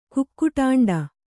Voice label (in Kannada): "ಕುಕ್ಕುಟಾಂಡ", Kannada